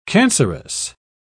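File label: "cancerous", British English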